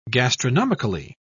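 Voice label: "gastronomically", British English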